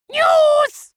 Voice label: dwarf scream news, voices